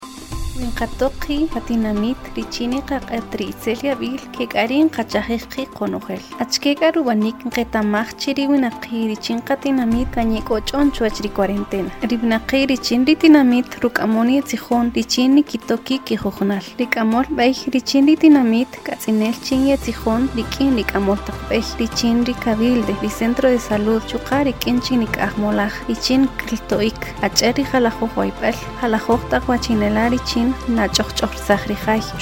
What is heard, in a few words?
SPOT KAQCHIKEL COVID-19